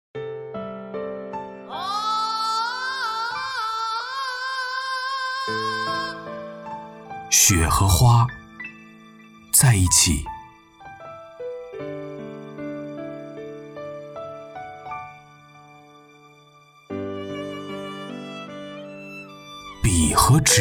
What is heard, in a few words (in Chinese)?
英男 中文广告 中文配音; 在一起